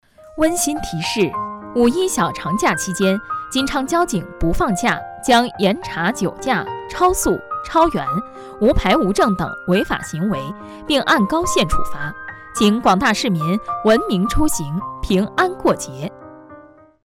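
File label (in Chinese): 温馨提示, 女配音 青年（18-35） 普通话配音 女性知性配音 自然讲述配音; 五一小长假温馨提示一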